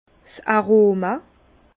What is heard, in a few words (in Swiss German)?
"aroma", Bas Rhin; vin; bouquet